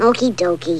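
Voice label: "okie doky", English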